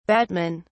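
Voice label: "batmen", British English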